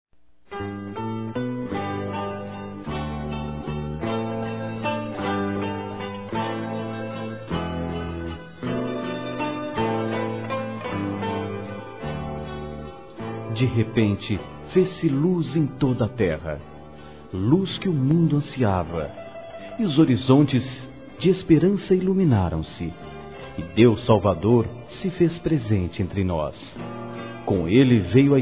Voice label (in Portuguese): NATAL NEUTRO M